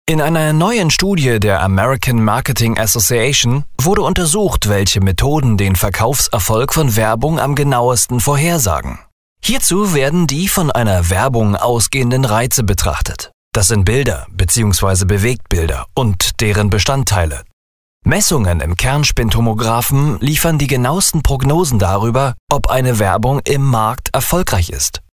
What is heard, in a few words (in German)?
Fremdtake Neutral